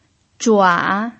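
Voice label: "zhua3", Mandarin Chinese pinyin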